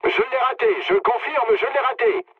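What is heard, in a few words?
bomb failure, mood low, French; War Thunder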